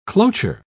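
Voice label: "cloture", British English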